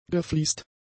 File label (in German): "gefliest", German; adjectives